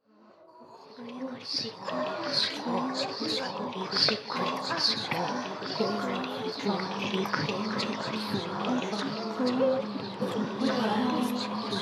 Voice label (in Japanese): ゆっくり 耳舐め しこしこ フェラ Ｈなセリフ カウントダウン, ASMR; ダウナー風俗オナサポ味